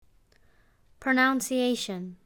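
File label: "Pronunciation", English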